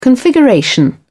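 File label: "configuration", British English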